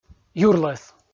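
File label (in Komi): "юрлӧс" Komi, male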